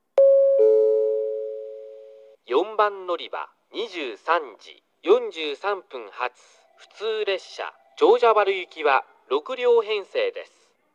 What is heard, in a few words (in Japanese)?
4番のりば案内放送（普通 長者原行き）